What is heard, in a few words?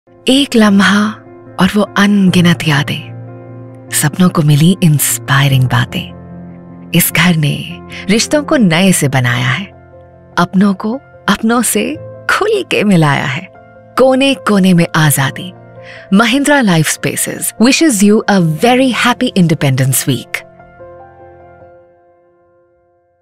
Commercial